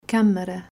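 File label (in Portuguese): "Camara", Portuguese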